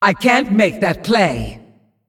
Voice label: Female Demon ERROR PLAY